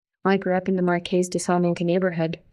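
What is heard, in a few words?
Voice Acting es, female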